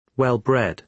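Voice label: "well-bred", British English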